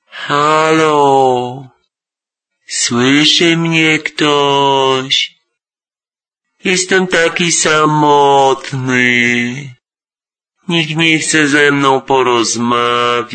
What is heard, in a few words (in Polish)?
Głos Męski - Halo! Słyszy mnie ktoś